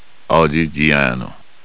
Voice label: punjabi; Golden Record